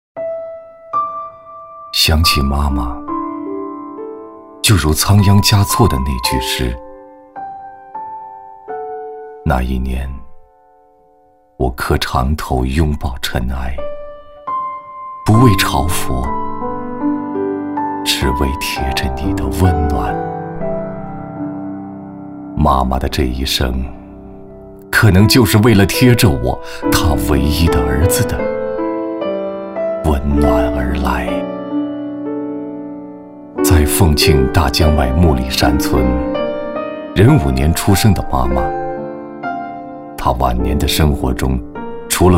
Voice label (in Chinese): 男 朗诵 思念妈妈